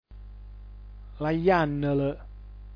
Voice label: "lajannl", dialetto giulianova

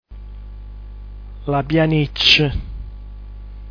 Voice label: "lapianicc", dialetto giulianova

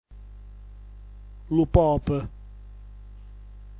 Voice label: "lupop", dialetto giulianova

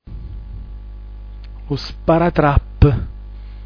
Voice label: "lusparatrapp", dialetto giulianova

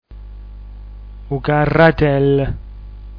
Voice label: lucarratell, dialetto giulianova

